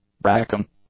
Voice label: "barracking", English; adjectives